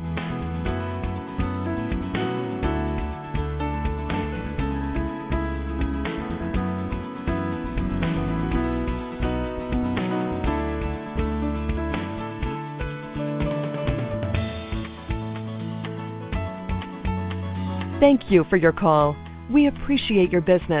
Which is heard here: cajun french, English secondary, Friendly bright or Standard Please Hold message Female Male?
Standard Please Hold message Female Male